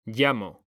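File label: "llamo", Spanish, male; mekanta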